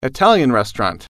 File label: "Italian restaurant", English